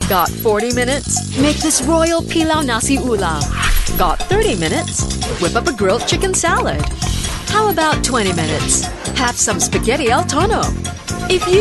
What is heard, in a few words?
Asian English got 20 minutes; AFC